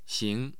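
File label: "xing2", Mandarin Chinese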